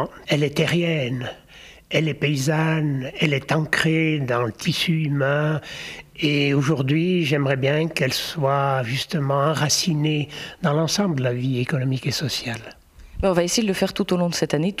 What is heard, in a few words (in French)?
patois